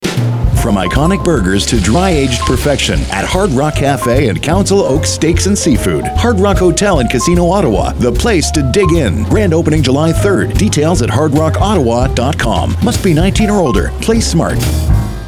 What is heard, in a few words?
Radio Ads; RideauCarleton; radio commercials